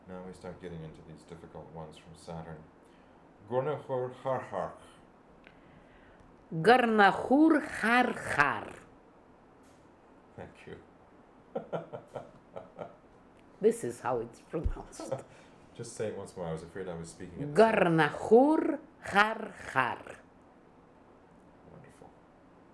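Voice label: "Gornahoor Harharkh", pronunciations; Fourth Way; Beelzebub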